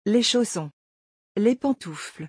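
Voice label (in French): "chaussons pantoufles", French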